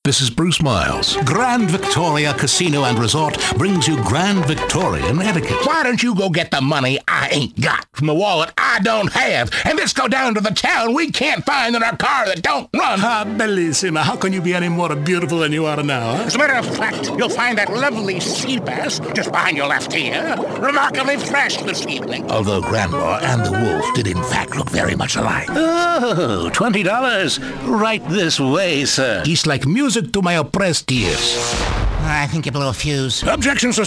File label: Characters, Male voices